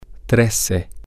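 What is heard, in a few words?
thirteen, Spanish, female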